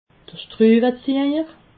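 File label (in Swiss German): "schruweziejer", Alsatian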